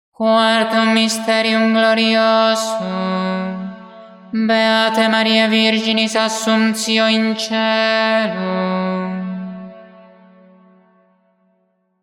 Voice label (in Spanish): Anuncio del cuarto misterio glorioso; santo rosario